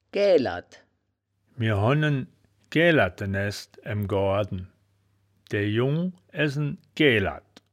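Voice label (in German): "gaeaelerd", wittlicher; Gäälerd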